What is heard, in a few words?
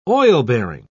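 "oil-bearing", British English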